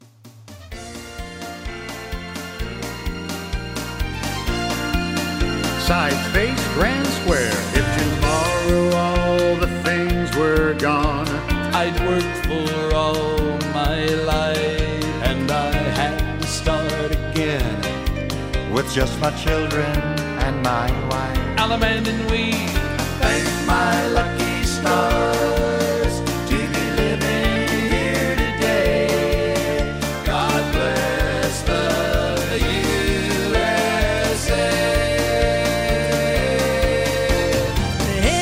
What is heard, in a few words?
Various Callers